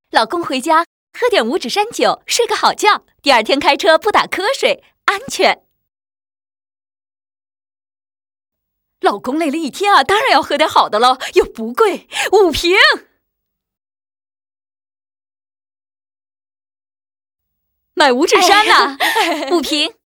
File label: fly, MANDARIN FEMALE